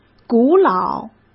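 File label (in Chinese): "古老", Mandarin Chinese; hsk; chinese-flashcards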